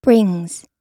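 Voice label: "brings", US English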